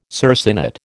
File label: "circinate", American